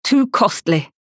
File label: Insufficient Funds, en US; Diablo III; озвучка некроманта